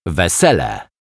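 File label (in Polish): "wesele", Polish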